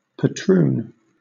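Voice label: "patroon", British English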